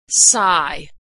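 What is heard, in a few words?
"sigh", American English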